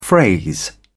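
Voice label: "phrase", British English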